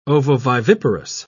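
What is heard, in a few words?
"ovoviviparous", English, British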